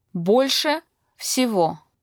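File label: "more than anything", Russian vocabulary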